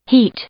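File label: "heat", English, female; noun; i-ɪ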